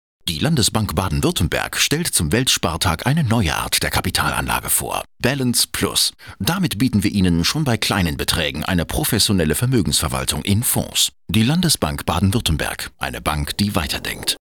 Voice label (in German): Werbung Freundlich